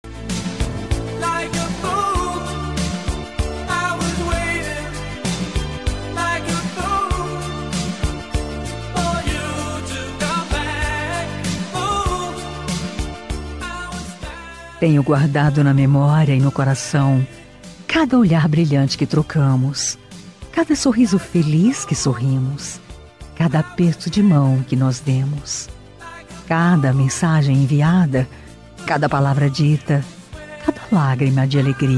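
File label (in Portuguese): dia dos pais esposa, marido voz feminina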